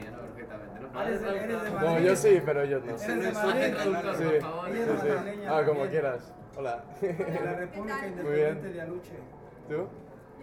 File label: Vox Squad Talking